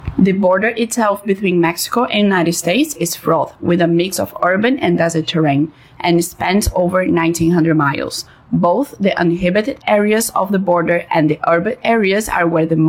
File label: READ ALOUD